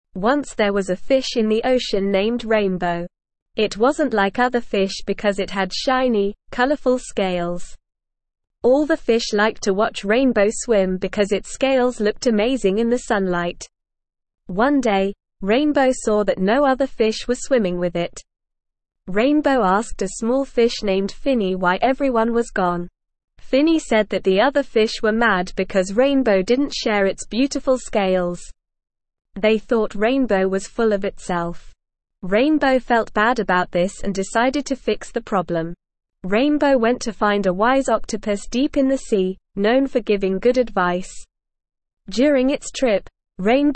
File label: ESL Short Stories for Kids Upper Intermediate NORMAL Reading; The Rainbow Fishs Journey